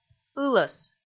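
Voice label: "ылӧс", Komi, female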